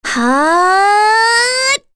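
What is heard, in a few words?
Vox Casting, korean; Kirze; kingsraid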